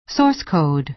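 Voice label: "source code", American English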